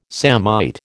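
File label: "samite", American English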